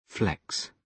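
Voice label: "flex", British English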